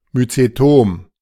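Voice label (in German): "Myzetom", German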